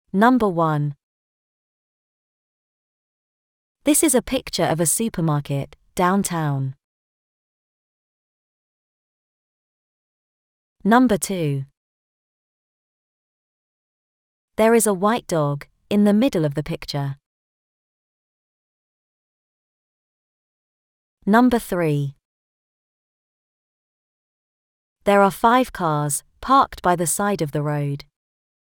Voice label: uk describing places town